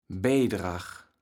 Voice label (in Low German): "Beidrag", kaevels platt